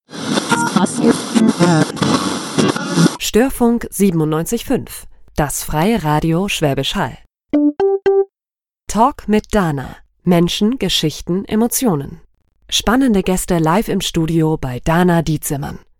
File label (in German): Trailer Hörfunk